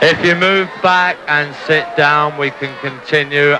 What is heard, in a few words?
LoFi Phrases, LoFi Vocals; Vengeance Samples